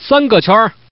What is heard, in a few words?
Man, tuple